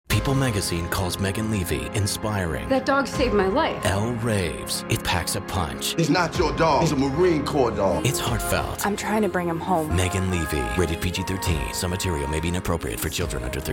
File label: radio